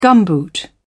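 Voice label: "gumboot", British English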